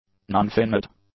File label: "nonfinite", english; adjectives